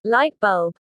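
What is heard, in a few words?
"light bulb", English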